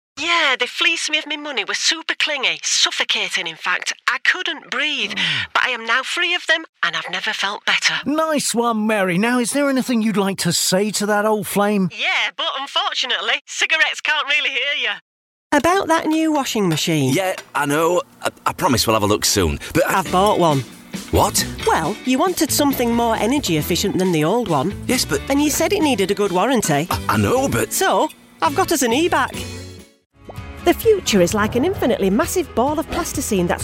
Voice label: a bit quirky

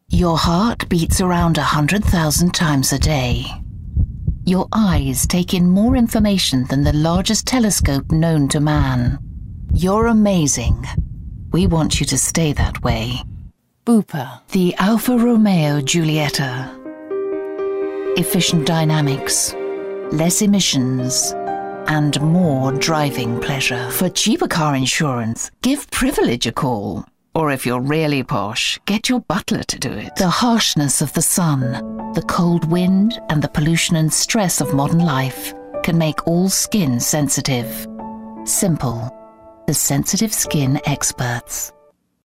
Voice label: commercial

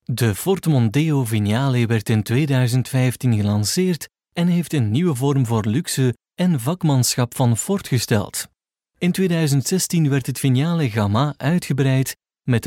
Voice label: flemish male corporate

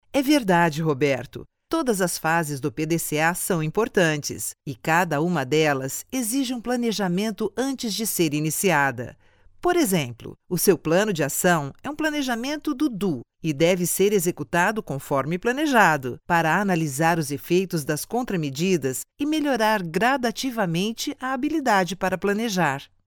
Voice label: brazilian portguese female e-learning

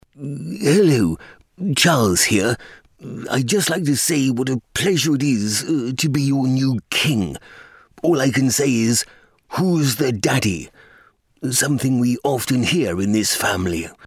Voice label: king charles soundalike

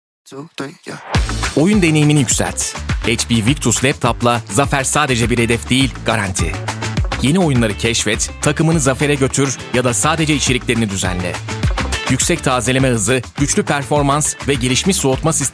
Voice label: turkish voice commercial

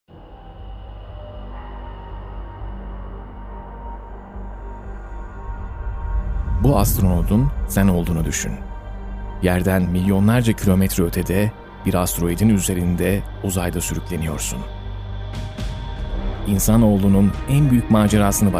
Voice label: turkish voice documentary

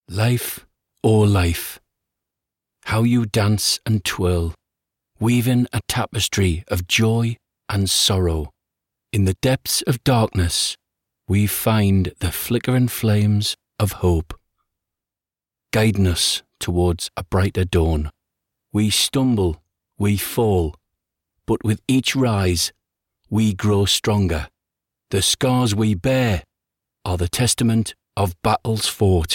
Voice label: geordie accent

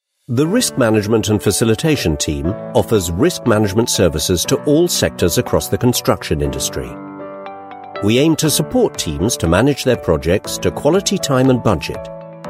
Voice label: corporate

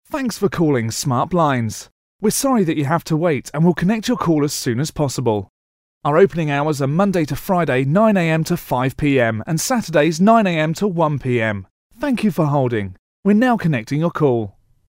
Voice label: on hold

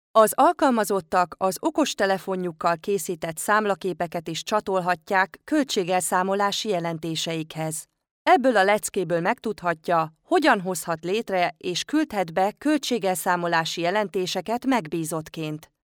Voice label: hungarian female, elearning

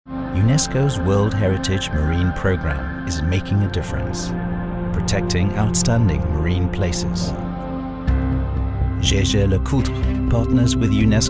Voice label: indian male neutral english

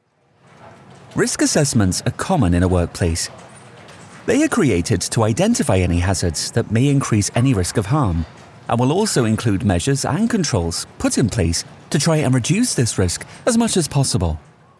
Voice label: e-learning

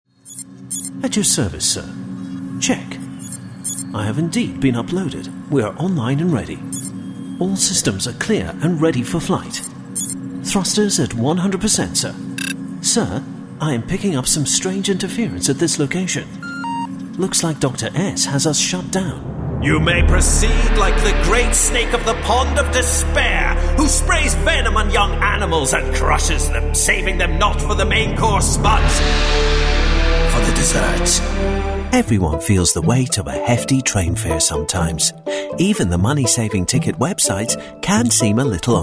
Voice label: character voices

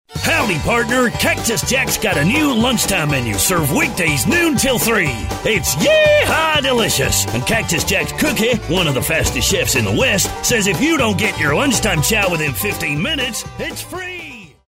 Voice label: cowboy

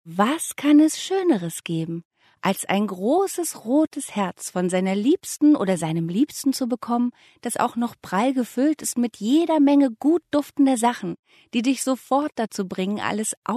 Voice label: commercial

